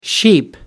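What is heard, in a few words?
"sheep", English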